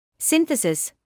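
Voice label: "synthesis", British English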